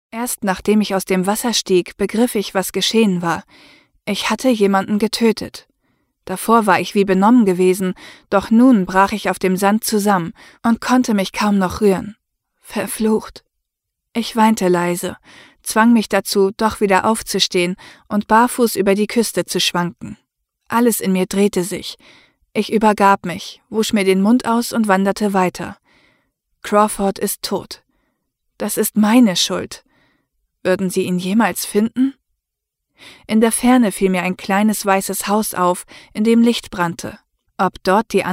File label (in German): Hörbuch